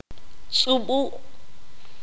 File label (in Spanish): "chupar", Q'anjob'al